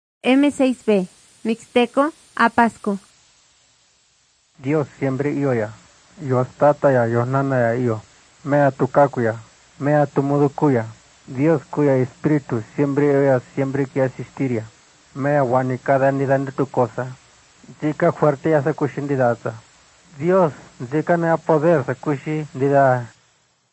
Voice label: mixtec diagnostic spanish mexico

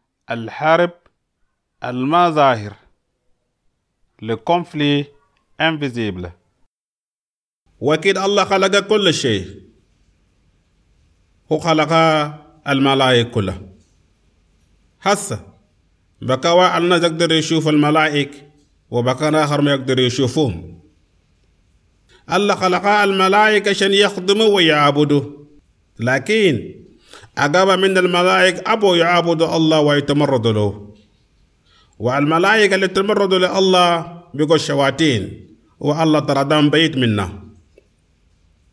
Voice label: unseen conflict, chadian arabic

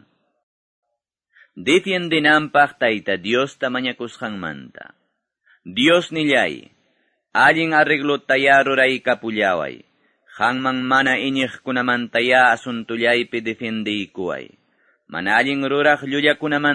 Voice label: salmos, quechua ayacucho

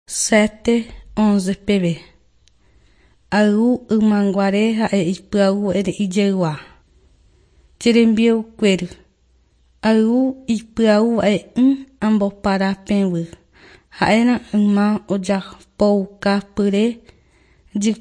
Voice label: john guarani mbya, bible

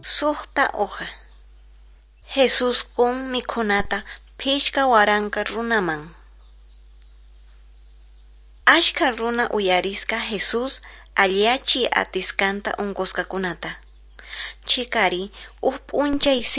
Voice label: quechua norte de bolivia apolo

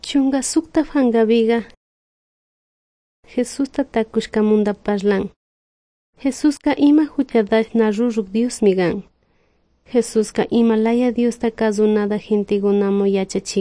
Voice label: good news quichua de salasaca

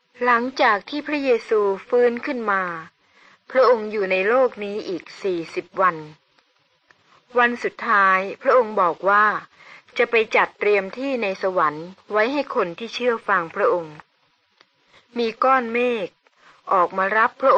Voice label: good news short thai central

